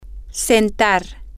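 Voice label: to sit down, spanish, female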